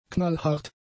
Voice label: "knallhart", German; adjectives

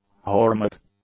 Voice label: "hormic", English; adjectives

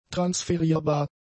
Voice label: "transferierbar", German; adjectives

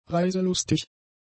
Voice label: "reiselustig", German; adjectives

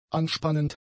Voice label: "anspannend", German; adjectives

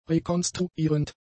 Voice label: "rekonstruierend", German; adjectives

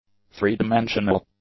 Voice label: "three-dimensional", English; adjectives

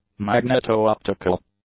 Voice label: "magneto-optical", English; adjectives

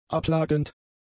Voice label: "abplagend", German; adjectives

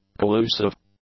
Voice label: "collusive", English; adjectives

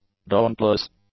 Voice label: "dauntless", english; adjectives

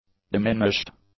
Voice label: "diminished", english; adjectives

